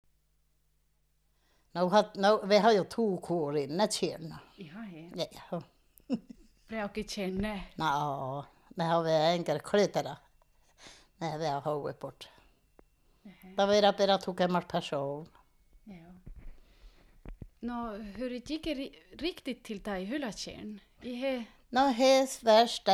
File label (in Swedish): dialekter; Folkminnen